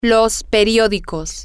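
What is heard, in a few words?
Spanish, the newspapers, female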